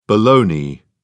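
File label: "bologna", British English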